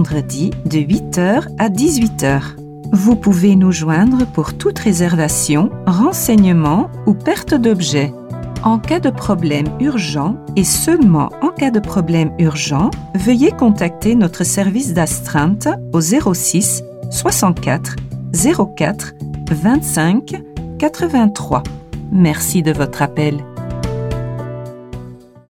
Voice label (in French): REPONDEUR AMBULANCES MODERNES